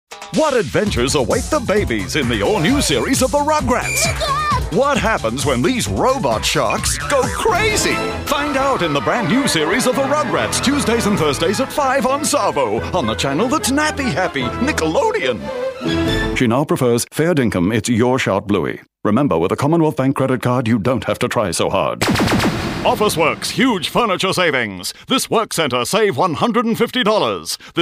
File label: straight